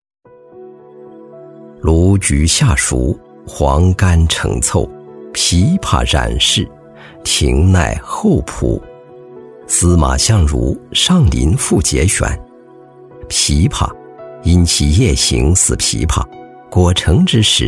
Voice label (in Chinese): 男 枇杷宣传 舒缓纪录片风格 讲解; 男35-历史感强; 高端大气